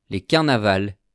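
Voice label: "les carnavals", French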